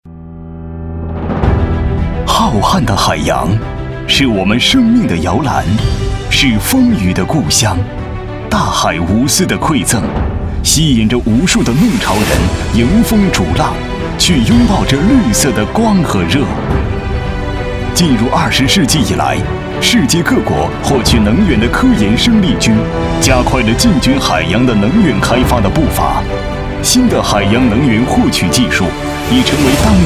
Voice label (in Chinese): 大气 浑厚 海洋风电 演讲稿